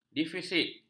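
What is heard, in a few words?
"defisit", Malay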